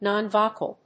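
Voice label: "non-vocal", English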